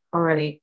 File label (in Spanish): Voice Acting es F0